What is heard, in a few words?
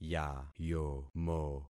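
"yayomo", concatenated syllables; Affixing experiment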